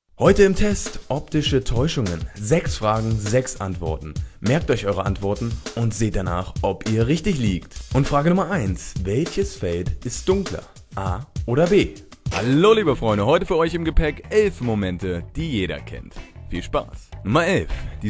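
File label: German, male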